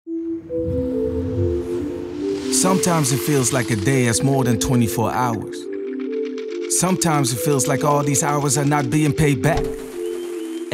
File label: US, voice-overs; Industry District